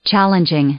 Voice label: "challenging", English; adjective

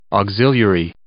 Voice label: "auxiliary", English

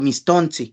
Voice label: "mistontsin", Náhuatl; gatito; kitten

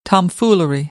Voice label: "tomfoolery", American, English; ɑː - ɒ

